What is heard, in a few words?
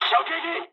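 Japanese bomb success mood high; War Thunder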